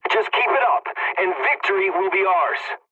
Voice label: pilot tickets lead continue ally, english